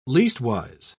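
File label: "leastwise", English, British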